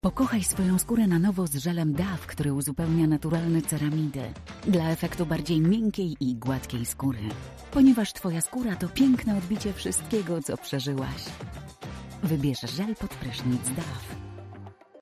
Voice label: Polish